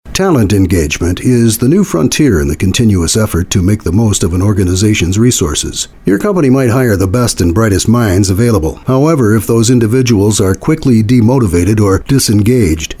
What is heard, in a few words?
GENERIC BOOK READ